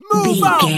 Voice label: voice male soldier move out